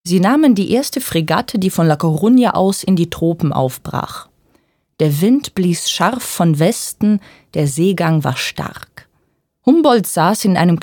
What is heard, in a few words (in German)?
Hoerbuch